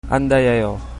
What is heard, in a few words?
goodbye, chabacano